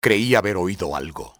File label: thought i heard, spanish soldier; enemy; XBOX